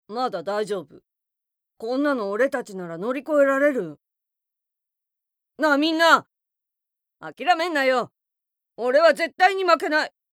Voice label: boy